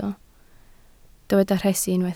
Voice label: "rhesi", Welsh